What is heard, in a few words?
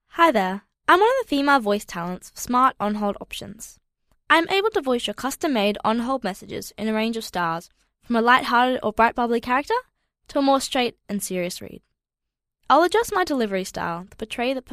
FEMALE